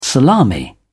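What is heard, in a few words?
"salami", British English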